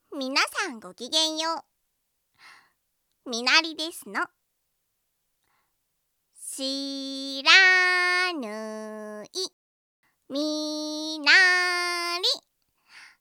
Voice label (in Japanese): 自己紹介, ASMR; 看板娘アイドル企画2c